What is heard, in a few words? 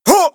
pain; a hat in time